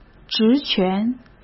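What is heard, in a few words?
"职权", Mandarin Chinese; chinese-flashcards; hsk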